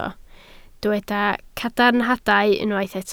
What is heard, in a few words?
"cadarnhadau", Welsh